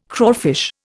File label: "crawfish", Indian